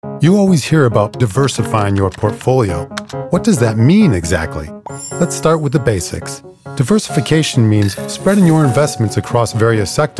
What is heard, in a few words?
Narration; Voice Over Artist